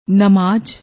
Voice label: "namaaj", Punjabi; ਨਮਾਜ